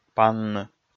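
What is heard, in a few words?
"панны", Komi, male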